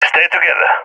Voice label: request stick together, balkan; balkan epic